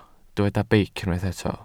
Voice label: "beic", Welsh

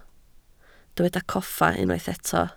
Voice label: "coffa", Welsh

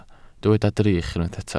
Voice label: "drych", Welsh

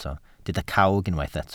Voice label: "cawg", Welsh

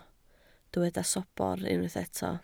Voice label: "sobr", Welsh

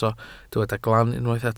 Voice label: "glan", Welsh

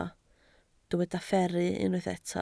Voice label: "fferru", Welsh

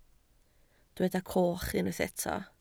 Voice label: "coch", Welsh

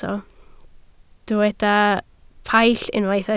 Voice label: "paill", Welsh

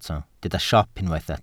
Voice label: "siop", Welsh

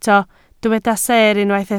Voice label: "seer", Welsh

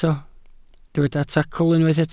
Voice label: "tacl", Welsh

